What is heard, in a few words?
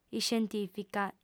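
"iscientìfica", female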